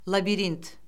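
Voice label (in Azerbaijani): "labirint", Azerbaijani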